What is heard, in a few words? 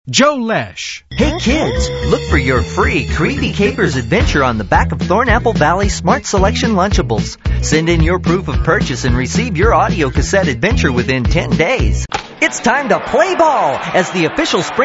male; Spotland Productions